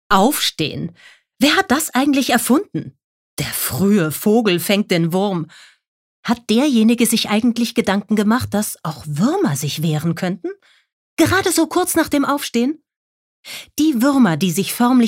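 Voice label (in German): Schauspiel, Dynamisch; Der Fruehe Vogel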